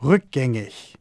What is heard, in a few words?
undo, commands, german